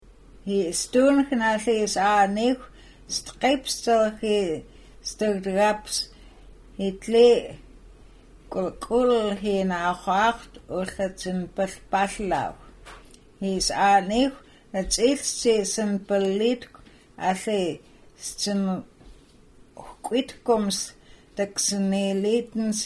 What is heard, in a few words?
Nsyilxcen; Summerland